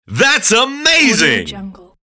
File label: sports announcer yelling thats amazing